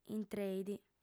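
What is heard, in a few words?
"intreit", female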